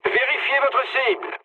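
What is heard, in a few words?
player friendly fire, mood low, French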